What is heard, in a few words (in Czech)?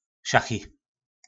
"Šachi", nářečí Po našimu; Šachy; Slovník nářečí Po našimu